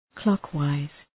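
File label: "clockwise", English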